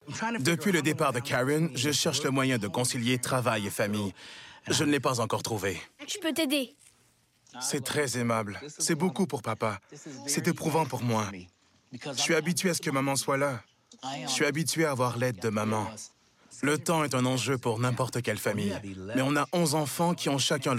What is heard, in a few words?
Voice Over